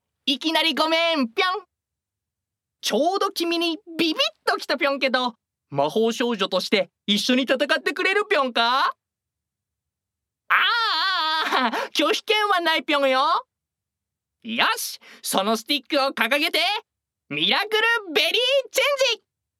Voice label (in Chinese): 劝诱语音